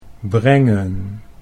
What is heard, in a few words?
"brengen", Dutch